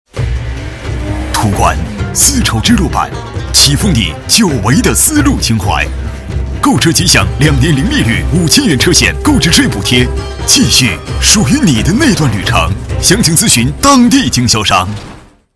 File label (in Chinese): 男 广告, 国语男声配音; 途观丝绸之路版